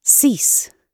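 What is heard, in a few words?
"cease", British English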